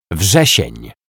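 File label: "Wrzesien", Polish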